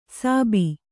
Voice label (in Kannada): "ಸಾಬಿ", Kannada